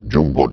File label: LoFi Words, LoFi Vocals